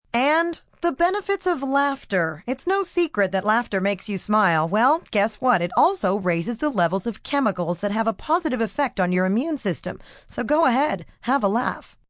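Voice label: News Laugh F, Broadcast, news, female news item on light health, benefits of laughter.; human voice speaking; VoiceBox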